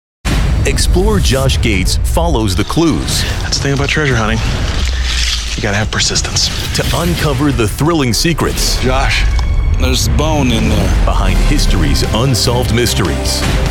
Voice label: promos; voice over

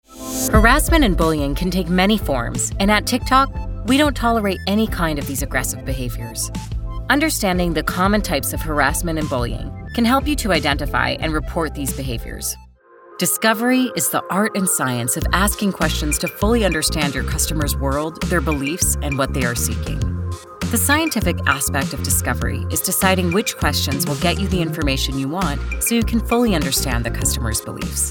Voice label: CORPORATE Informative Professional

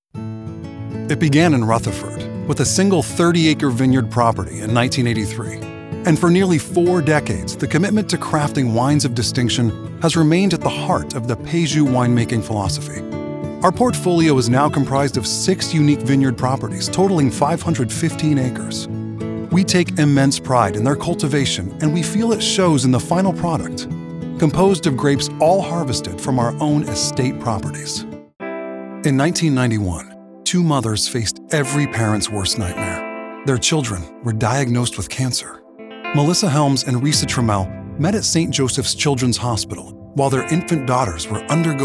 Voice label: Corporate Narration Warm Compassionate

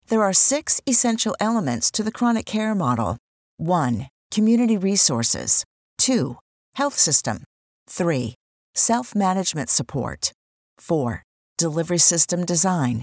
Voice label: Narration

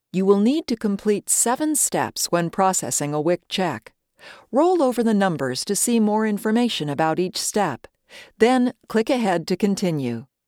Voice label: eLearning

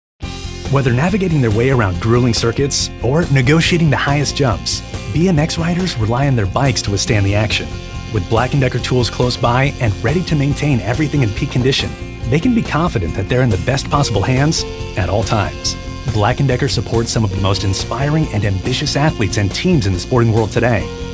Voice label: Explainer

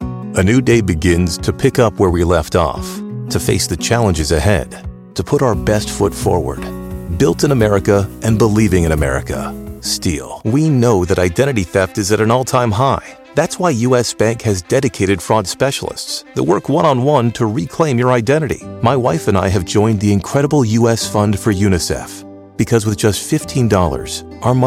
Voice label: Commercial

